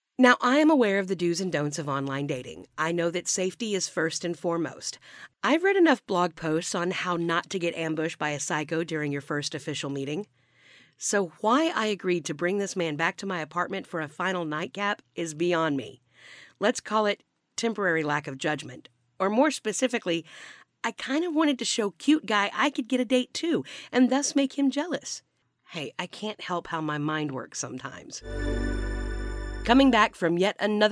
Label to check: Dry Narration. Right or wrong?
Right